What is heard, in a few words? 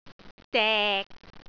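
"dek6", Cantonese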